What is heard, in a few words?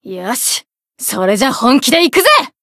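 Voice limit break, Japanese; kid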